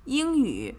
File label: "Yingyu", Chinese